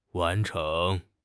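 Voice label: "wan2 cheng2", Mandarin Chinese; HSK3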